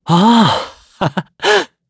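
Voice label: Vox Happy, Korean; Siegfried; kingsraid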